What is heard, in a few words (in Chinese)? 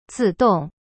自动 zi dong, Chinese; cyberchinese